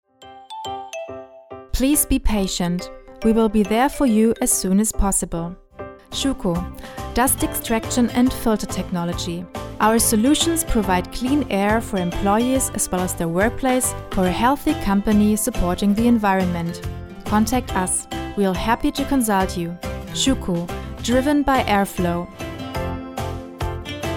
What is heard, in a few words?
en vo; schuko